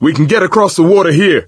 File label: misc directional, coach, survivor voice